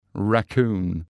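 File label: "raccoon", English word; animals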